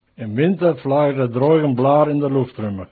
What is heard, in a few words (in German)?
ripuarisch